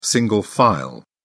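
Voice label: "single file", British English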